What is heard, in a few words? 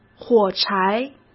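"火柴", Mandarin Chinese; chinese flashcards; hsk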